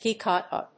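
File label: "Piccata", English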